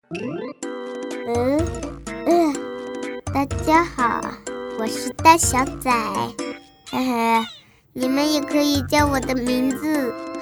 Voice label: 女 真男童; 麦兜

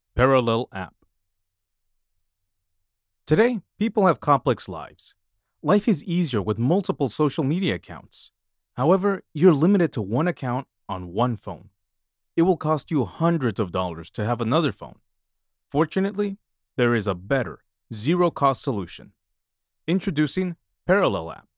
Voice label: 手机应用程序广告

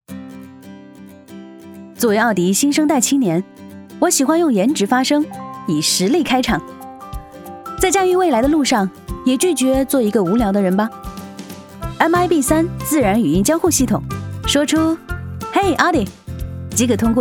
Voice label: 女 自然独白; 奥迪

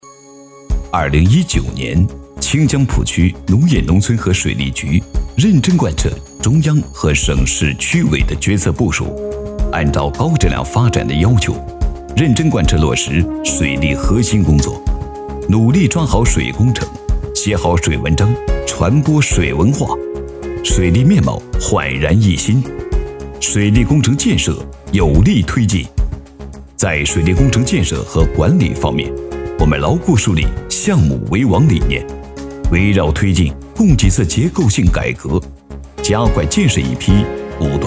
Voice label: 男, 汇报片, 干练, 水利工程汇报

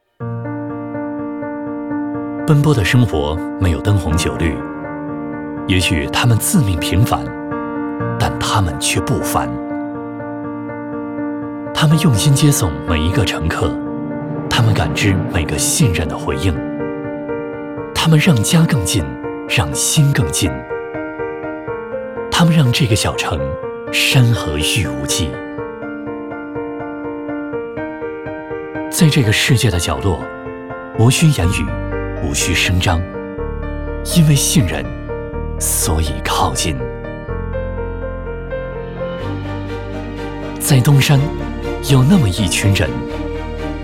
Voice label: 男 专题 积极向上 人物

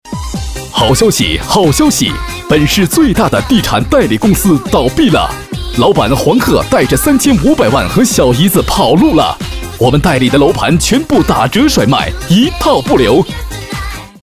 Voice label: 男 广告 楼盘打折 促销

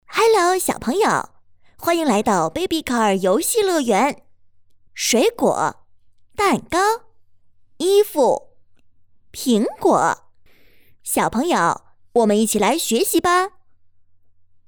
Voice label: 女 女童 游戏

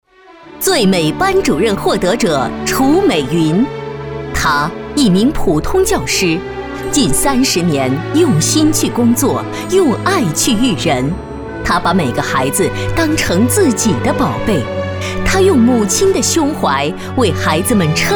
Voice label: 女, 颁奖, 大气; 教师节颁奖

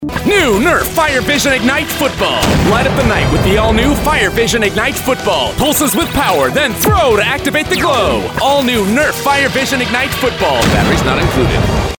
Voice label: 足球之夜宣传 激情澎湃

